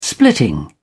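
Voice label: "splitting", British English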